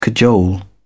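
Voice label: "cajole", English, British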